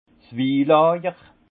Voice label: "wilajer", alsace; vin; chai

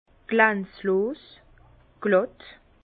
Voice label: "glanzlos", Bas Rhin; vin; mat

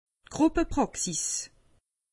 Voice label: "gruppepraxis", alsace; medecine

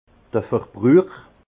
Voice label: "verbruch", alsace; vin; consommation

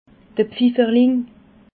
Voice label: "pfifferling", alsace

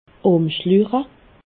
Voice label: "umschluche", alsace

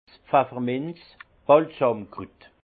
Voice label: "pfefferminz", Alsace; vin; menthe

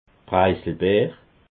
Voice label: "preiselbeer", alsace

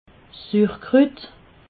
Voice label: "surkrut", alsace

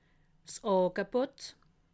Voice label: "angebot", alsace

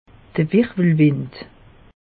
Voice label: "wirwwelwind", alsace; meteo

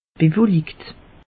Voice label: "bewellikt", alsace; meteo

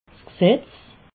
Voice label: "gsetz", alsace

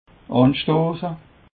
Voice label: "anstoss", Alsace

